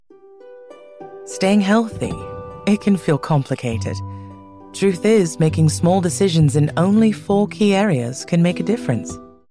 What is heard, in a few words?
health wellness bright cheery motherly compassionate empathy light; teacher